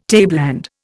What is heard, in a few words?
"tableland", Indian